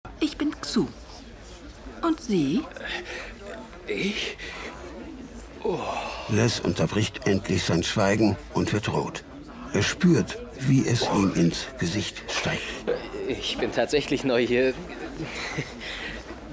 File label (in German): Hoerspiel; Reptilehre